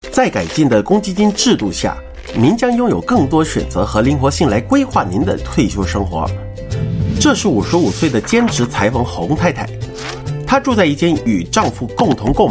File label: Mandarin, Male